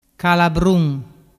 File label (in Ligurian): "calabrun", dialettu